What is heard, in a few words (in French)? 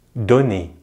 "donner", French